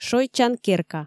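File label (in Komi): шойччан керка, Komi, female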